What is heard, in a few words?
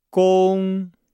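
"gong", Chinese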